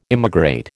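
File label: "immigrate", American English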